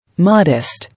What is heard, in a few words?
"Modest", English